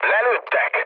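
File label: Hungarian aircraft destroyed mood low, speech; War Thunder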